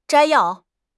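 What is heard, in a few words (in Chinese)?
"摘要", Chinese; HSK6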